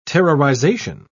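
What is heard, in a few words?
"terrorization", English, British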